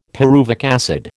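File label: "pyruvic acid", American English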